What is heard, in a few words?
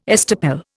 "estoppel", Indian